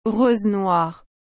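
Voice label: "rose noire", French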